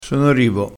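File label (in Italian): "rivo", dialetto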